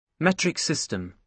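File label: "metric system", British English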